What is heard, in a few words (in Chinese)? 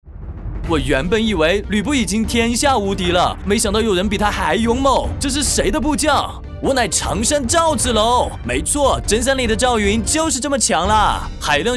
台湾腔年轻激情; 无双赵子龙割草